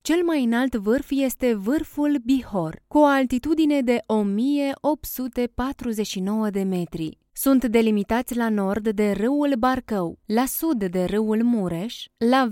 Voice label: romanian documentaries

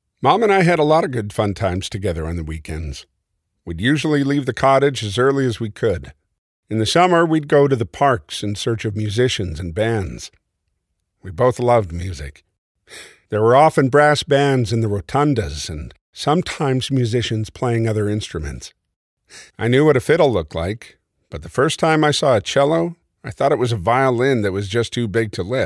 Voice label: english american audiobooks

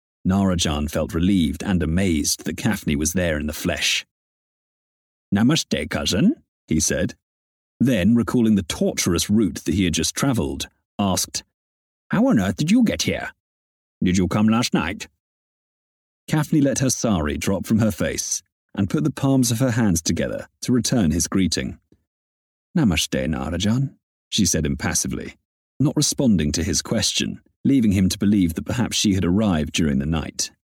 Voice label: english british narration; locutor de doblaje en inglés (inglés del sur británico)

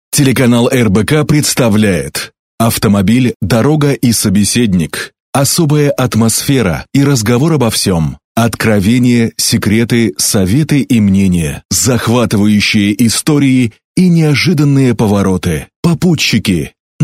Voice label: russian commercial

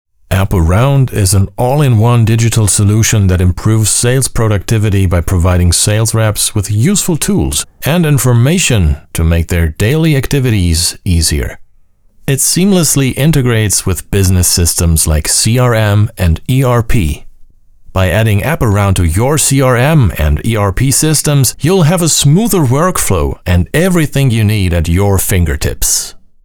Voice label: english corporate videos; actor de doblaje profesional

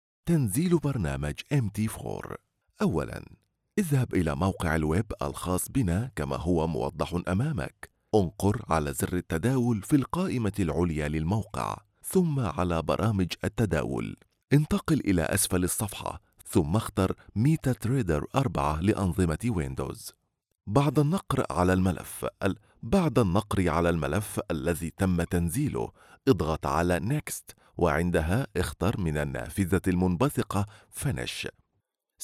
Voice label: arabic e-learning

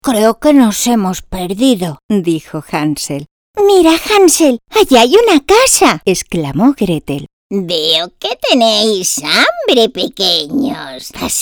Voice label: spanish animation

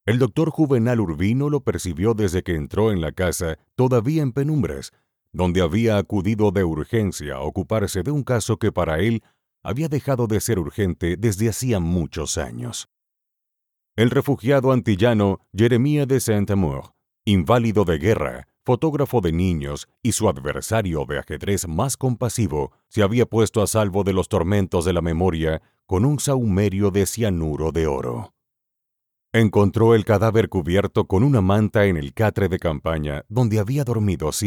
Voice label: spanish latin american narration

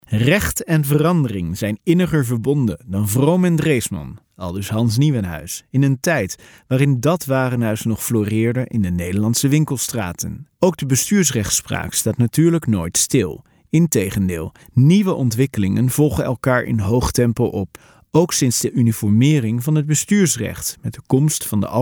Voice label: dutch audiobooks

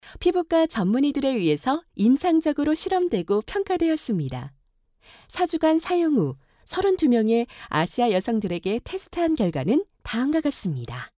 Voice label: korean promos

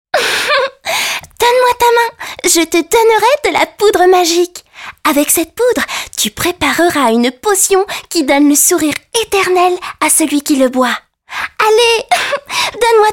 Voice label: french animation

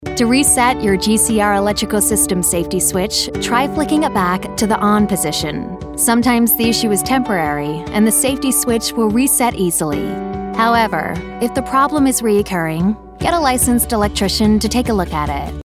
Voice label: english american e-learning

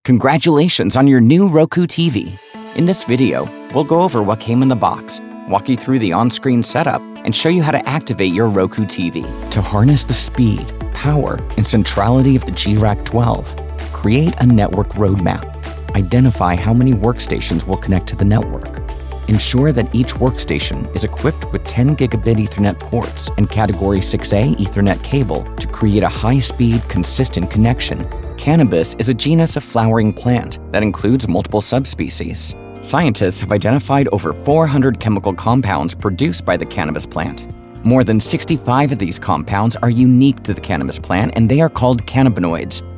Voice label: English American corporate

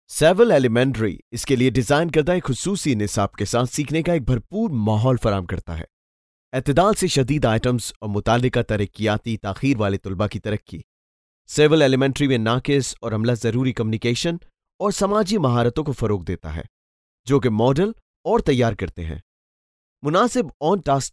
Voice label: Urdu narration

